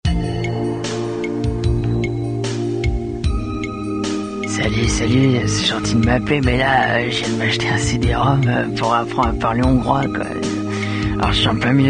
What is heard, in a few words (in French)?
Message Vocal